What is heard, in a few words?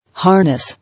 "Harness", English